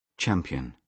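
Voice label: "champion", British English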